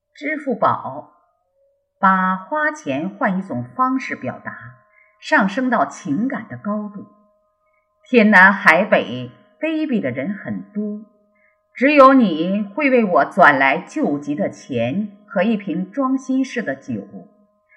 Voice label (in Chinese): 促销; 支付宝